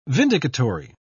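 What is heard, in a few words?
"vindicatory", English, British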